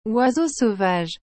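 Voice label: "oiseau sauvage", French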